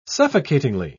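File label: "suffocatingly", British English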